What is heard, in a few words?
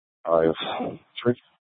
Sheriff scanner; Shrf Mnt (Parks)